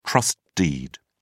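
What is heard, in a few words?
"trust deed", British English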